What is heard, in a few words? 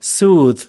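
"soothe", US English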